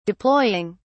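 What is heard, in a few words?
"deploying", English, British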